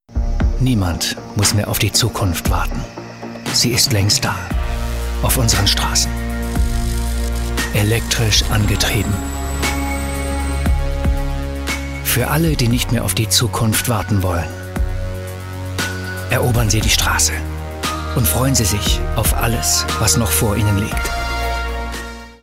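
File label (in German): Spots OFF, Sprecher, Männer; BMW i8; Visible Mobility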